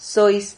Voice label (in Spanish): "sois", Silabas